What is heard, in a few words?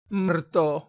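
"mrto", Proto-Indo-European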